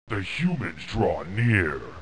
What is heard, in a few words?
Orc; approach